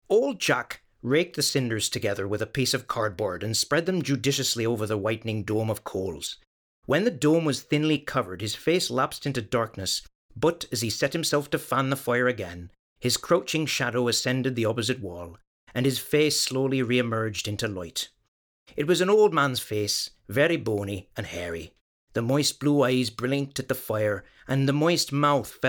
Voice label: Irish accent